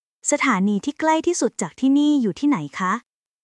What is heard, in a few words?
Thai, basic thai phrases